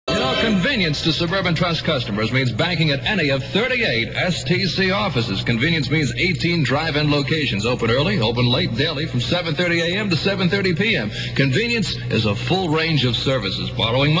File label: spot; suburban trust